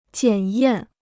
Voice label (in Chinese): "检验", Chinese